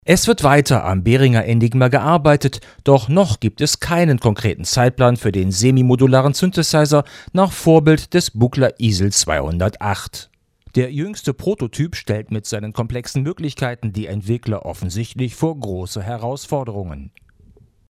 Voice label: Maono PD400X USB advanced limiter